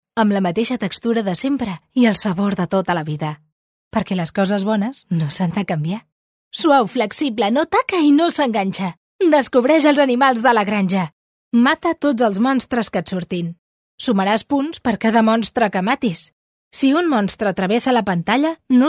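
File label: Spanish, female, Catalan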